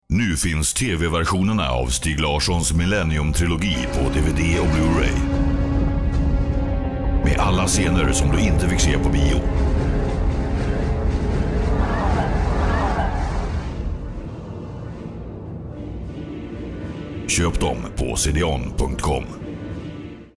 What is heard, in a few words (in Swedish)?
werbung; swe